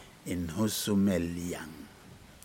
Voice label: birds Black tailed whistler, Aneityum